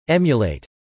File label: "emulate", English